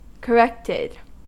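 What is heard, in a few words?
"corrected", American English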